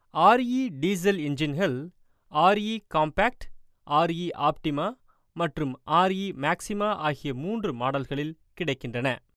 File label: TAMIL MALE